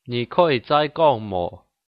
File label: say again, hakka